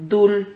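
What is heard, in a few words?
"dul", Spanish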